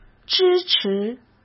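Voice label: "支持", Mandarin Chinese; chinese-flashcards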